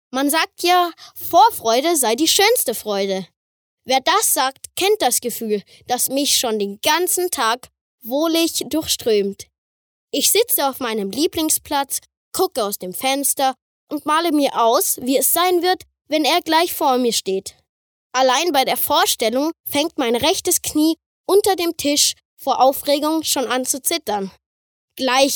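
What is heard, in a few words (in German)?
acting, Frech; Kleine Freuden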